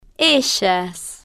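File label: "ellas", slow, Spanish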